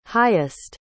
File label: "highest", pronounce